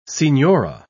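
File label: "signora", English, British English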